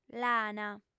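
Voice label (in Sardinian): "lana", Sardinian, female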